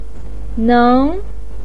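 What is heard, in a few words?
"neng", Mandarin pinyin, second tone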